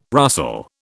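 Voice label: "rustle", British English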